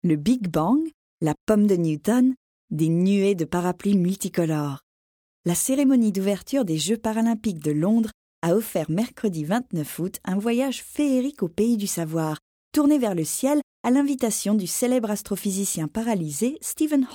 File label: French Narration